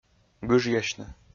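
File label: "гыжъясьны", Komi-Zyrian, male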